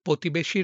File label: Male